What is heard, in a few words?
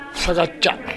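"kaccha", Minamiyamagata dialect Japanese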